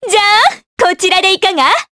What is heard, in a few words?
Vox Skill jp; Juno; kings raid